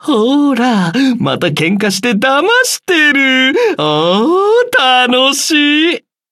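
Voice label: level up, Japanese; cookie run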